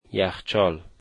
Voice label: "refrigerator", Dari